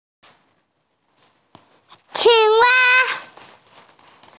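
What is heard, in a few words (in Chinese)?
"qing1wa1", Chinese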